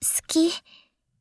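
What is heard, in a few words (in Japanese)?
"好き" Japanese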